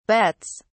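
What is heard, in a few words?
"bets", British English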